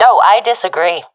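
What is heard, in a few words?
disagree, professional female; vo; Counter Strike Model Pack; CS:GO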